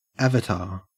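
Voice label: "avatar", Australian English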